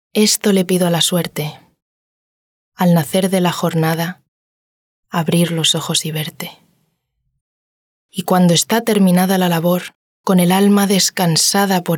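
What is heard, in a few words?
Spanish, female, poetry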